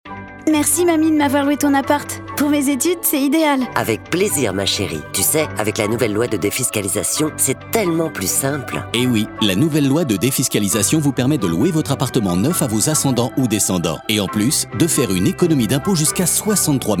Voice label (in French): Pub MARIGNAN IMMOBILIER